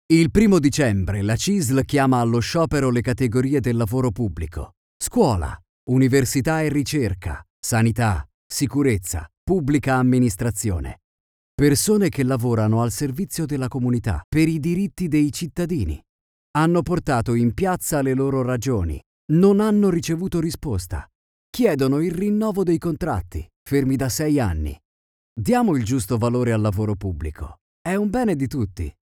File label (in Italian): spot; CISL